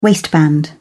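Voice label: "waistband", British English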